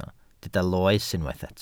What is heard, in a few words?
"loes", Welsh